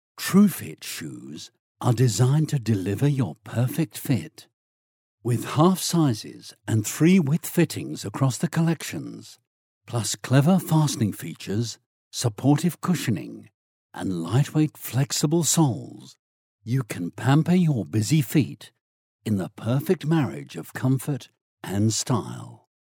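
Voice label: English, British, male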